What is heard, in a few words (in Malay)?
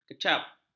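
"kecap", Malay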